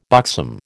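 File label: "buxom", American English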